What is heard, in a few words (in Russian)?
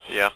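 мужской голос говорит "да"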